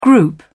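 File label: "group", British English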